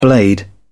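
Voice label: "blade", British English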